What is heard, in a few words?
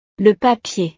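"le papier", French; utiles escolares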